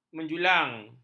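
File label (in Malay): "menjulang", Malay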